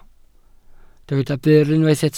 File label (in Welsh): "byr", Welsh